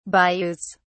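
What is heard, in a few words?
"bayous", English, British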